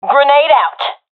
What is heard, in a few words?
throwing grenade, professional fem; vo; Counter Strike Model Pack; CS:GO